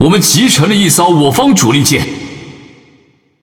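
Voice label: Chinese (Taiwan) Speech Destroy Ally Battleship; World Of Warships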